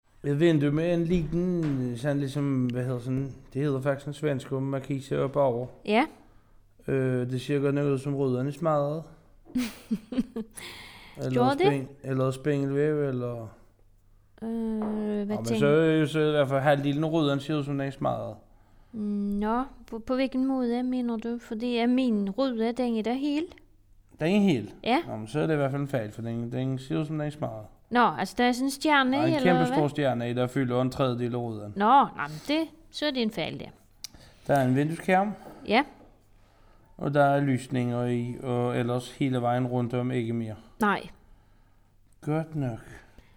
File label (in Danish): BORNHOLM dialekt mk aar